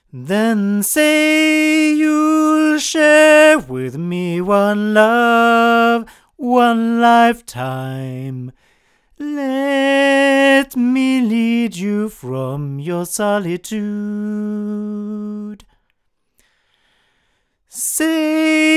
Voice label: male